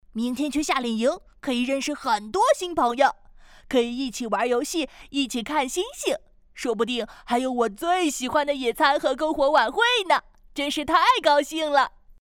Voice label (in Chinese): 童声, 各种童声 绘本录制等